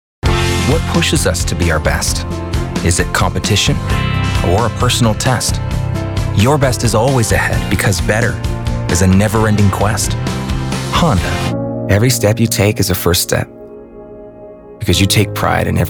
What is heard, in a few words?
Commercials